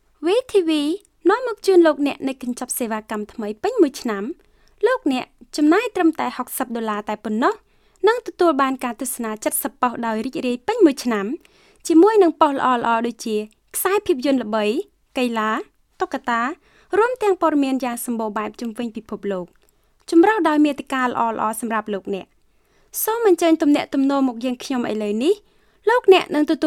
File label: Khmer